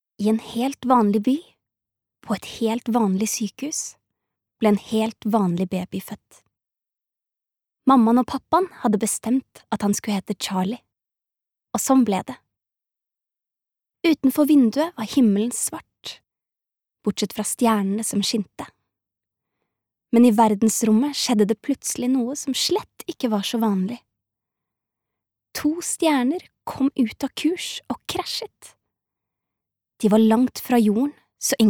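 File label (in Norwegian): barnebok, Norwegian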